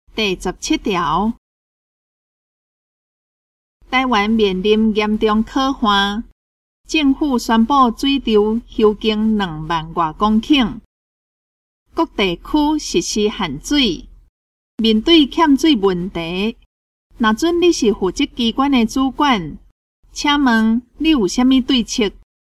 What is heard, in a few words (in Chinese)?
口語表達, 閩南語; 閩南語語言能力認證; 金安咱來考C